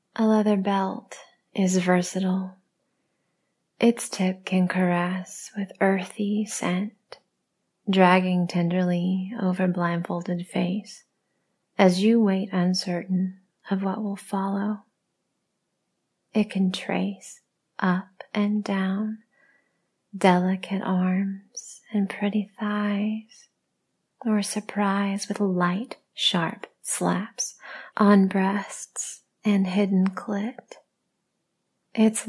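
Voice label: Erotic Poetry, female; The Belt The Belt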